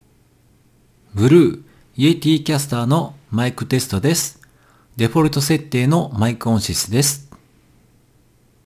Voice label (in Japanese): G Blue Yeti デフォルト; ロジクール